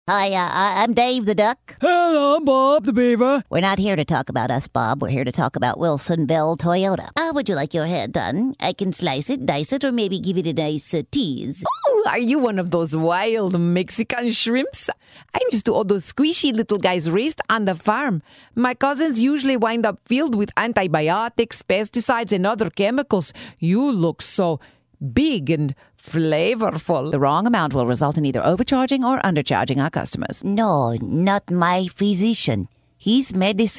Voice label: english american animation